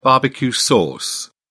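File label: "barbecue sauce", British English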